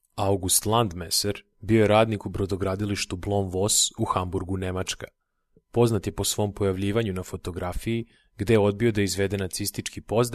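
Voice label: Serbian male Corporate; voice over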